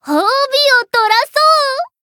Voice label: zodiac gift jp; tiramisu